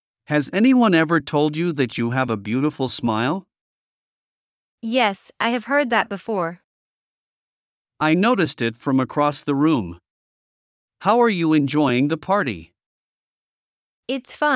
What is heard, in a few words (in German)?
Dialog; Flirten